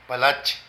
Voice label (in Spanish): Uva, Zapoteco de Petapa; Vocabulary